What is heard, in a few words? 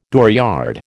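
"dooryard", American English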